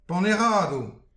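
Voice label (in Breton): "paneradou", Breton; petit precis de prononciation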